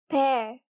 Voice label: Pear, girl

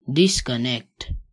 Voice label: "disconnect", english

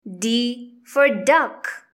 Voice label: "d duck", english; grade1; identifying letters i

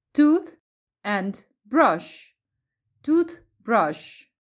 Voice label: tooth and brush, english

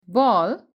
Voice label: "ball", english; sun and light

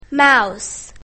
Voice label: "mouse", english; grade1; basic sounds 1

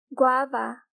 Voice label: Guava, girl

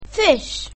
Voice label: "fish", english; grade1; basic sounds 1

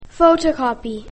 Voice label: photocopy, english basic sounds; grade1

